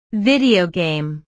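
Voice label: "video game", English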